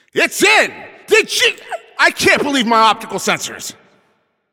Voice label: Goal Scored, Announcer

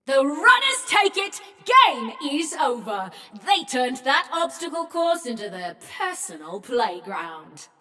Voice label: Runners Win, Announcer; Flux; Scraprun

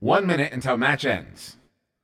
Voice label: 1 Min Left, CTF Announcer

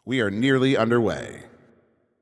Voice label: 10 Seconds To Game Start, Announcer

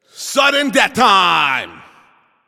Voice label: Announcer Overtime Starts

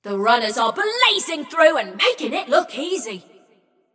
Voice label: Scrap Run Runners Lead, Announcer; Flux

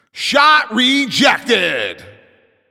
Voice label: Announcer Goalie Save

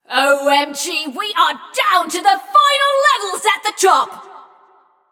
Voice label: Scrap Run Entire Arena Nearly Gone, Announcer; Flux

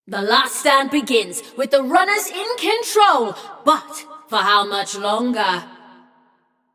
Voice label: Runners Lead, Announcer; Flux; Scraprun

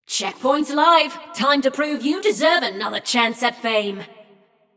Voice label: Checkpoint Opened, Announcer; Flux; Scraprun

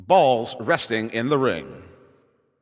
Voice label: Ball Placed in Start Ring, Announcer

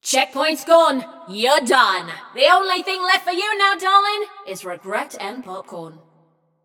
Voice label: Scrap Run Inform Runner Eliminated, Announcer; Flux

